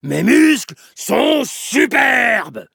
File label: levelup, fr; cookie run